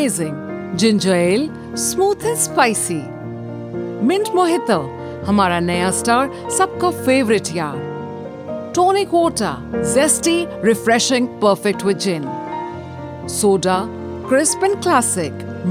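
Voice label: Schweppes Eng, female English voice artist from Gurgaon good accent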